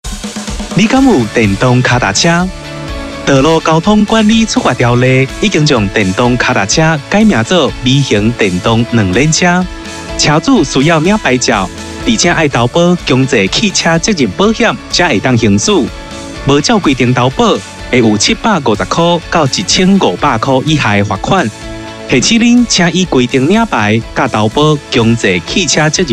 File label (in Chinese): 台語; 微型電動二輪車篇; 11月30日起，微型電動二輪車新車須投保強制險才能上路，現行已領有合格標章行駛上路的微型電動二輪車，應該在施行後2年內投保強制險。